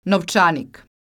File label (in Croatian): "Novcanik", croatian